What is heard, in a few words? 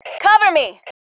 COVERME, FEM